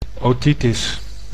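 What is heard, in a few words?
"otitis", Dutch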